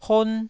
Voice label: "hon1", Taiwanese syllable

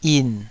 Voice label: "yin3", taiwanese Syllables

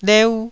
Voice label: "leu1", Taiwanese syllable

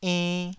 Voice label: "enn2", taiwanese syllable

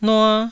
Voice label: "nua1", Taiwanese

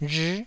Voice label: "rhii9", taiwanese

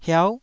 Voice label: "hiau9", taiwanese

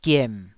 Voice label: "giem3", Taiwanese syllable

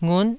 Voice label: "ngut9", taiwanese syllables

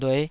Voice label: "lueh5", Taiwanese syllable

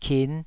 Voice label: "kin5", taiwanese syllables

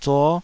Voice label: "zuo5", taiwanese

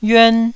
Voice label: "yuan1", taiwanese Syllables

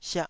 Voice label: "siah2", taiwanese Syllables

